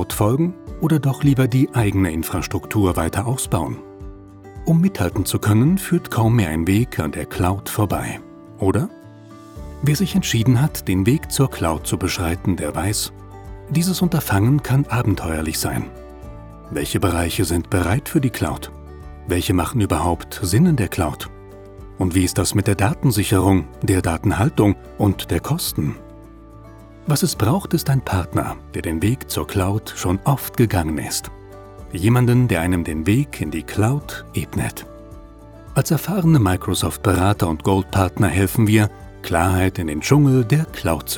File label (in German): Erklaerfilm Business; TV-SPOT; polestar